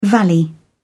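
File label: "valley", British English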